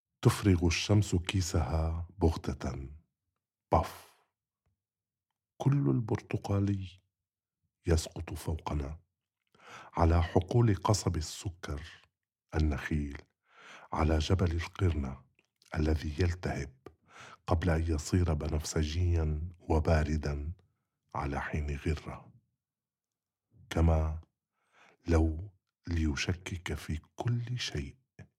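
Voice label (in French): Le soleil vide soudain son sac arabe, poèmes; le soleil de Louxor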